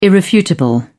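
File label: "irrefutable", British English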